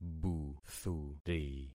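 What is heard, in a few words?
"buthuri", concatenated syllables; Affixing experiment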